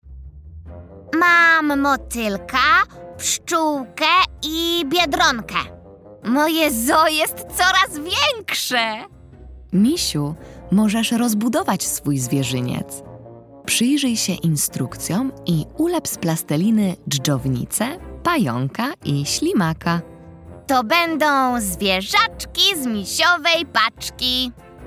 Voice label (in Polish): narracja; czasopismo mis; wiosna; rozmowa